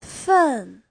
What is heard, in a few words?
"fen4", chinese